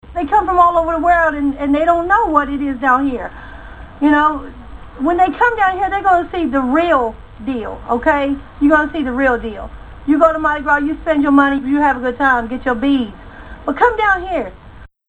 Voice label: new orleans woman, american